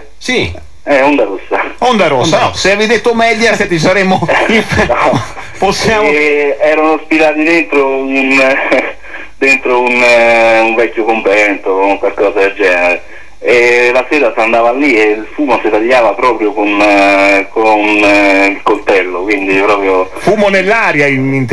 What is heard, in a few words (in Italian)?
Spot Telefonata Radio Rai2